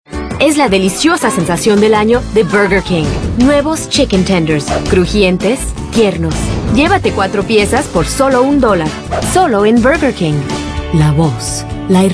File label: female, spanish